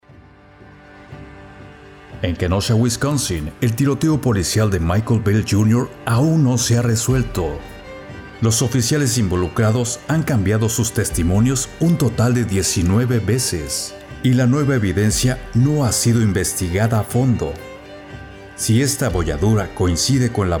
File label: Narration